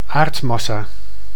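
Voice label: "aardmassa", Dutch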